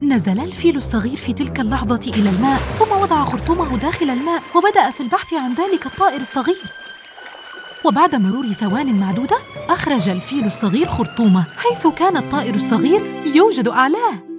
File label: Excitement dangerous Narration kids story